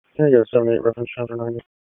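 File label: Police Dispatch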